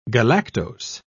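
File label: "galactose", British English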